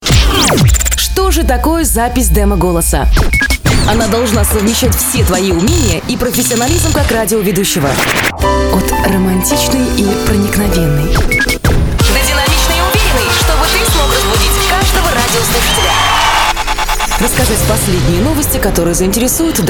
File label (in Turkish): Rusca Kadin, Seslendirme, Kadın Ses